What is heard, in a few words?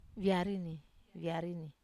"viarini", Wamesa